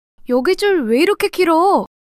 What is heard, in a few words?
surprise, monologue